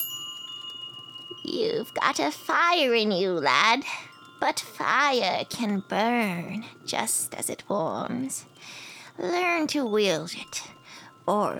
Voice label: foreigner